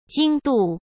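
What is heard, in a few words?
"经度", Mandarin Chinese; chinese-flashcards; hsk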